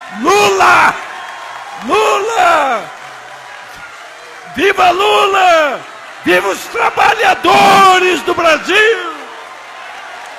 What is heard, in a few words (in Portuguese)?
gritando; geraldo alkimin; lula